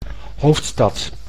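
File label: "hoofdstad", Dutch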